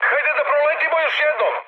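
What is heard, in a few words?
Serbian bomb failure mood high, speech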